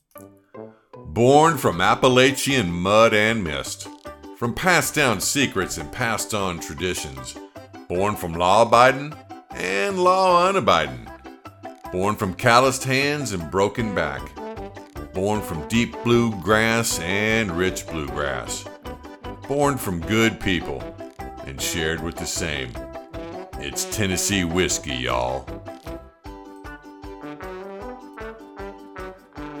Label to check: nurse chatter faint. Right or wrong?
Wrong